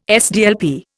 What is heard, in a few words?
"SDLP", Indian